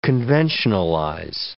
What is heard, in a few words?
"conventionalize", English